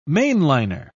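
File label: "mainliner", British English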